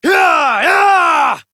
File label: Horse Sprint Adult; Duviri